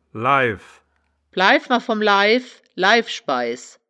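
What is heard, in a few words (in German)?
"laiff", wittlicher woerterbuch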